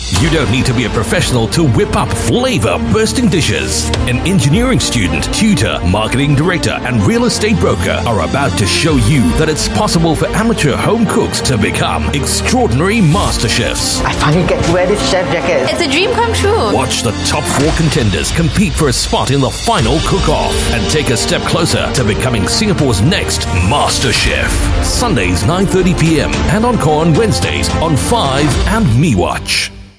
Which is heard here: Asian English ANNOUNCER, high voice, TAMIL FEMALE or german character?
Asian English ANNOUNCER